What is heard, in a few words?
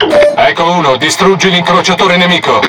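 It Speech Tutorial Task, Italian; World Of Warships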